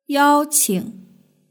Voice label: "yaoqing", Chinese

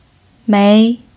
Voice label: "mei2", chinese pinyin

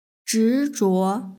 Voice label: "zhizhuo", Chinese; hsk6

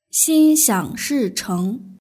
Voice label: "xinxiangshicheng", Chinese; nygreeting

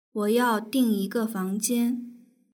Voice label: "dingfangjian", Chinese

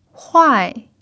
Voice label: "huai4", chinese pinyin

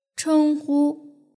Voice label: "chenghu", Chinese

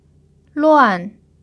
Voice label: "luan4", chinese pinyin

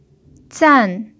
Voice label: "zan4", Chinese pinyin

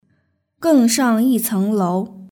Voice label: "gengshangyicenglou", Chinese; nygreeting

